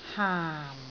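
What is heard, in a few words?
"haam4", Cantonese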